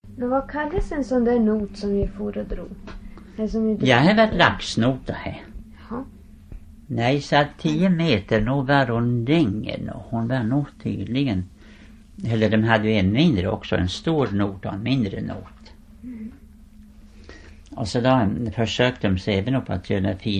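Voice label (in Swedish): Skellefteå Degerbyn Västerbotten, dialekter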